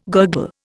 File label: "gurgle", Indian